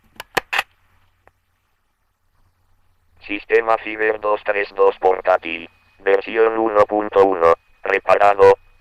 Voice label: Ciber 232P, synthesizers; Ciberveu; vintage-access

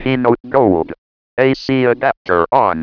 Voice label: KeyNote Gold On AC, synthesizers; Pulse Data International; vintage-access

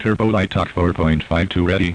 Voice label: LiteTalk, synthesizers, MicroTalk; vintage-access

